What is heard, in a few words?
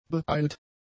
"beeilt", German; adjectives